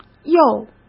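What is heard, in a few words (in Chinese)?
"又", Mandarin Chinese; hsk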